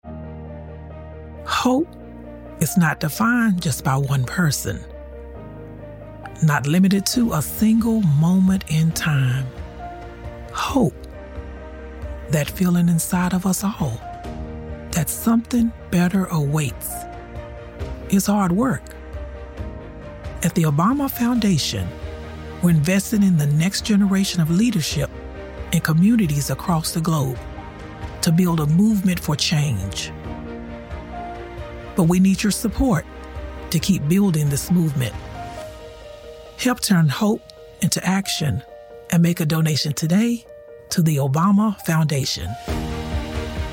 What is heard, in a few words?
ad; obama foundation